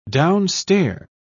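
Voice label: "downstair", British English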